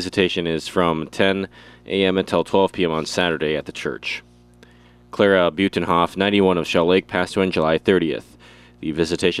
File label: Friday Funeral Announcements